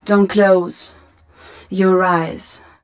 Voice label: fem spo, Vocals

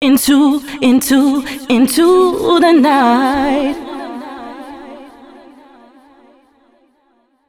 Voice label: female c# 140 bpm, hooklines, Dance Ultra Voice; hooklines 136-140 BPM; Mutekki Media; Dance Ultra Voice VOL-1

